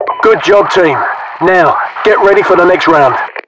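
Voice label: British encourage win; Voiceovers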